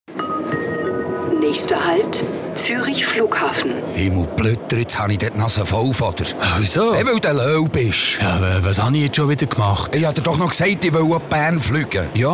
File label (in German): Werbung CH BE; flippig frech verspielt